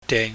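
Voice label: "dei", Cantonese, low level tone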